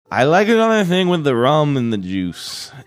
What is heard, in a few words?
saying i like another thing with the rum and juice slurred